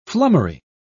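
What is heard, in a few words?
"flummery", British English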